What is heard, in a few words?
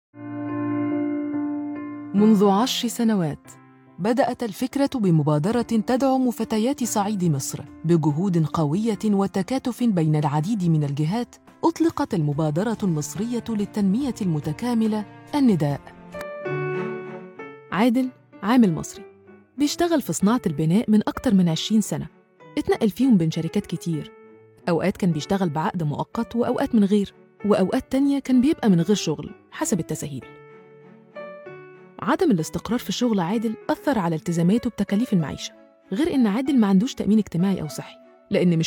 arabic narration